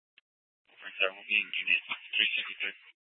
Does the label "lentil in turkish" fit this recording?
No